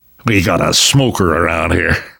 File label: heard smoker, survivor voice; namvet; Left 4 Dead 2; left4dead2 dlc2